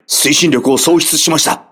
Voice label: voice message lost propulsion, crew ships japanese